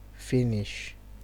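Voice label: "finish", Nigerian Pidgin, male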